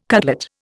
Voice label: "cutlet", Indian